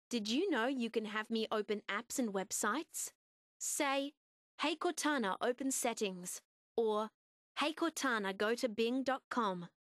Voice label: open settings, en-au; Windows; HoloShell

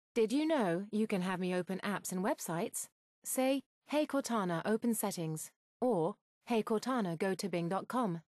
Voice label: open settings, en-gb; Windows; HoloShell

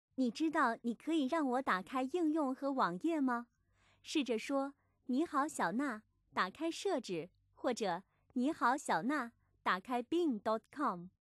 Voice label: open settings, zh-cn; Windows; HoloShell

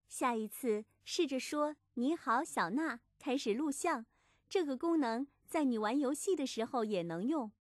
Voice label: take a video, zh-cn; Windows; HoloShell

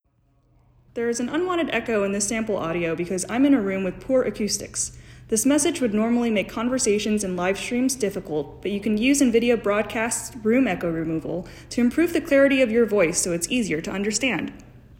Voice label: Echo, VoiceSample; OMEN Command Center

